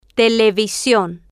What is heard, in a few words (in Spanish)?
"te-le-vi-sion", Spanish